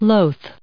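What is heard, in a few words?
"loath", US English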